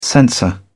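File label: "censor", British English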